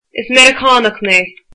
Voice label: Im American, irish